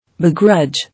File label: "Begrudge", English